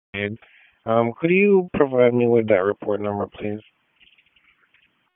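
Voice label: Police City, radio; Raleigh; City 1; wake; p25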